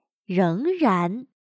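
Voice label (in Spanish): "仍然", chino mandarin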